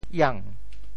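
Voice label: "iang2", Teochew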